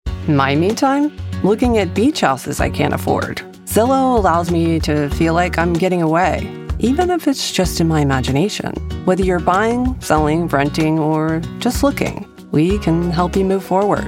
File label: commercial